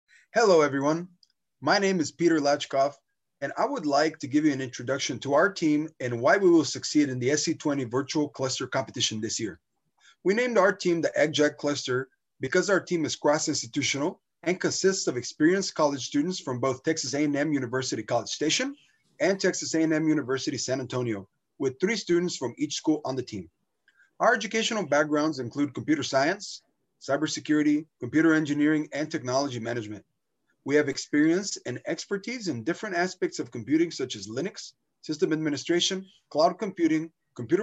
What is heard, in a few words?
Voiceover; ClusterComp 2020